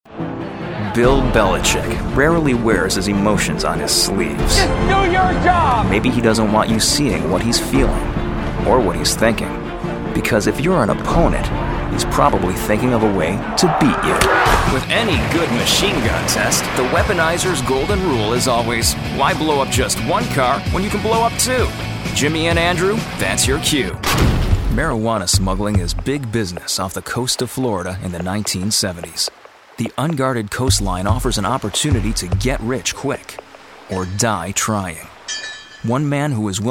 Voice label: Narration